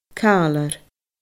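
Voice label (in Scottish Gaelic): "càilear", Scottish Gaelic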